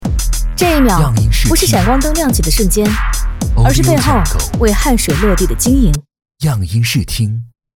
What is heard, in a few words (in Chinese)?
女 TVC 潮酷